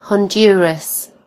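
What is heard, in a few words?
"Honduras", British English; gcompris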